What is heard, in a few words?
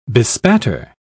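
"bespatter", British English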